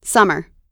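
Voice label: "summer", US English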